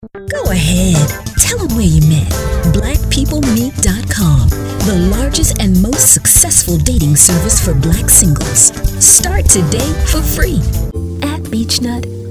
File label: Urban; Women Voice Over Talent